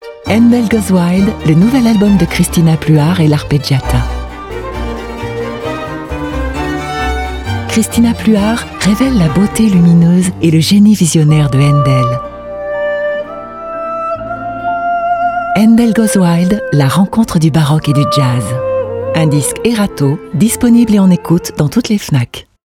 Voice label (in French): PUB radio classique elegant; FNAC; Haendel